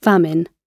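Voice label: "famine", British English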